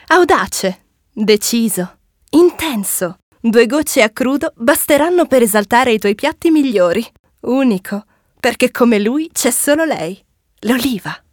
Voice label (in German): Werbung CH IT